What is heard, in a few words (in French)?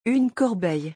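"une corbeille", French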